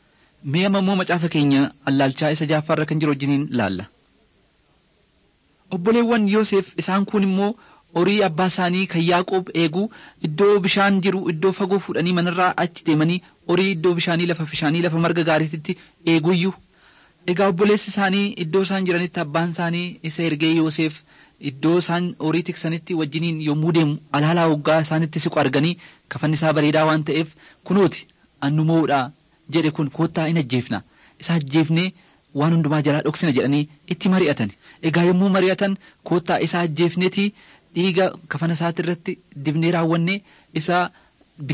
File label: oromo wellega; mighty men of god